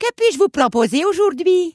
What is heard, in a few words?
dwarf, female; proposer aujourd hui; PhoenixCraft-1.16.5; PhoenixNPCPack; minecraft